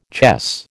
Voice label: "chess", American